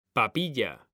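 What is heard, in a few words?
"papilla", Spanish, male; mekanta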